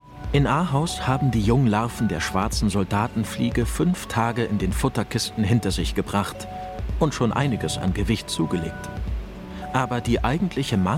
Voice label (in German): Kommentar, Radiofeature, Doku, Narrative, German; WDR; Insektennahrung